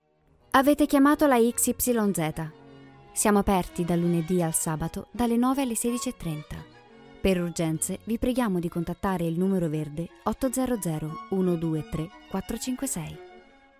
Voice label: IT, IVR

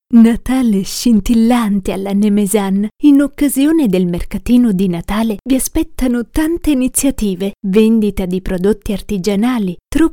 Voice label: IT, Commercial

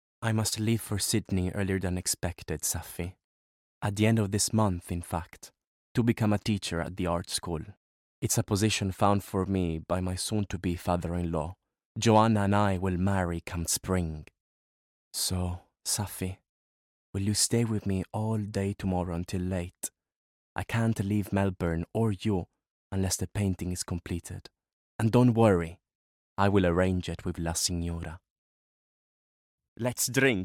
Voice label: IT, Acc EN, Narrative

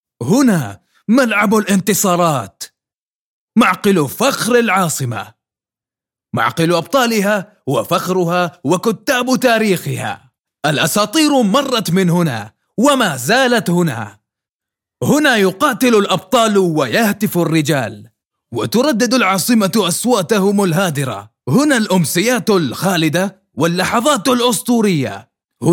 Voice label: AR, General, UAE Accent; GoLocalise

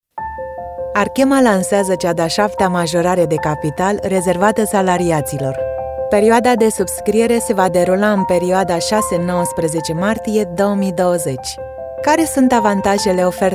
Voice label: RO, E-Learning

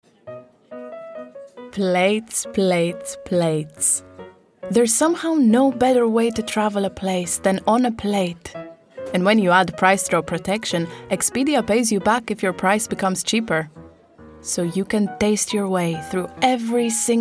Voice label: EN, Commercial; GoLocalise

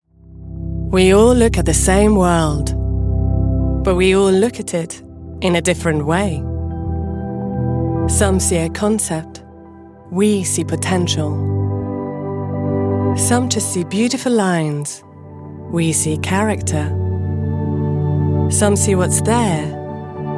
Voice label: European accented English

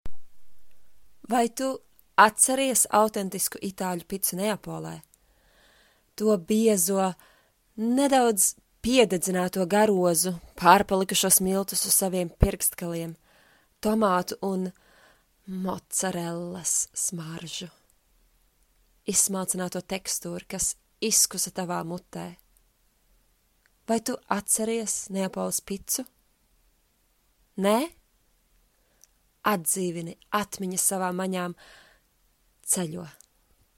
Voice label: LV, General; GoLocalise

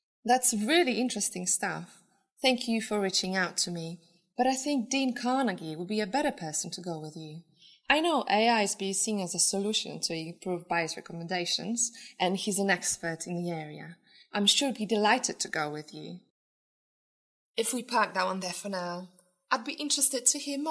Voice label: PL, Acc EN

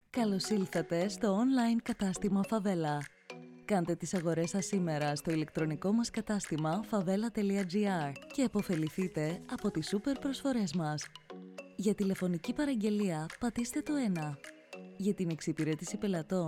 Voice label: ELCY, Commercial; GoLocalise

